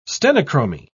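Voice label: "stenochromy", British English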